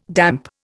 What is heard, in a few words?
"damp", Indian